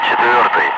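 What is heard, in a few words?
Russian calm; Ka-50; Wingman; DCS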